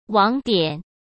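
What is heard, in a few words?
"网点", Mandarin Chinese; chinese flashcards; hsk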